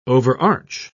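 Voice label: "overarch", British English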